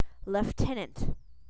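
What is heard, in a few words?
"leftenent", British English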